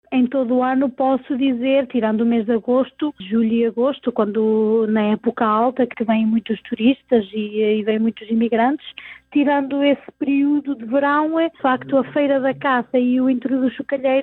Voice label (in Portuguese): vox pop hoteis